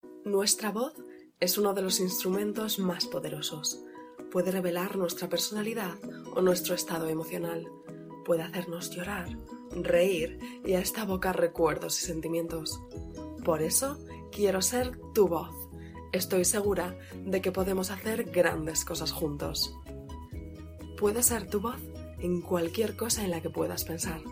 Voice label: Spanish EU